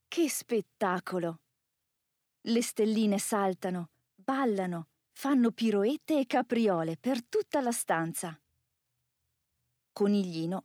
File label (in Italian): italian audiobooks